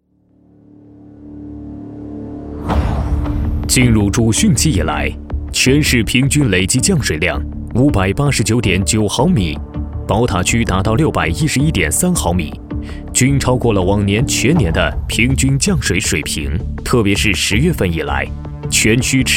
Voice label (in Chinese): 男 新闻样音